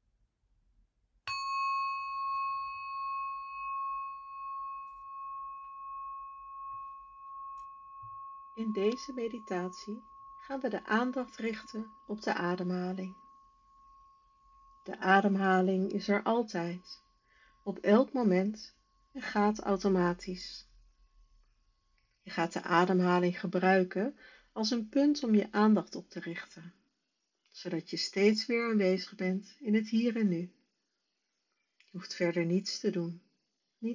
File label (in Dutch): Ademmeditatie; mbsr training oktober 2025